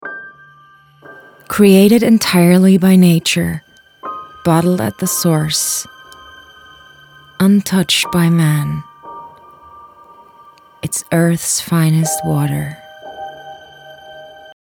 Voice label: Water American; LOFT VOICES

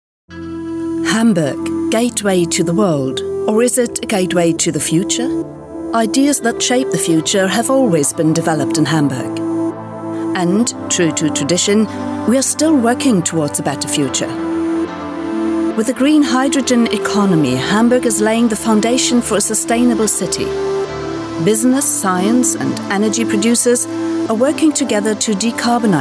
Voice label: trailer; future hamburg

